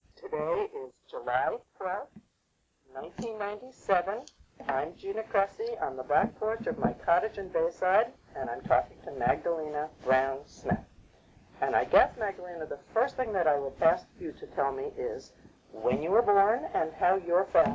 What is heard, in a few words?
Noise Removed, Oral History